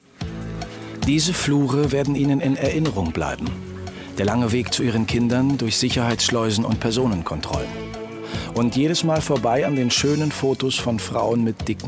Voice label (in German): doku; rbb; zu fruh ins leben